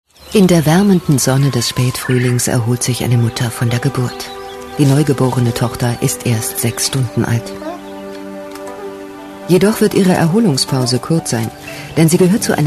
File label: germany voice over female narrator